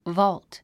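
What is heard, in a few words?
"vault", English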